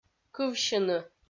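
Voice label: "кывсьыны", Komi, female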